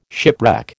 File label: "shipwreck", American